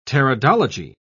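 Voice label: "pteridology", British English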